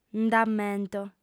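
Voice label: "nd'ammento", Sardinian, female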